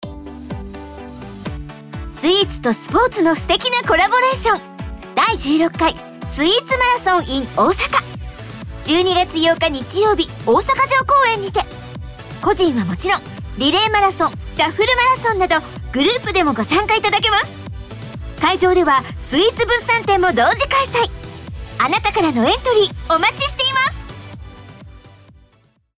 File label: CM Radio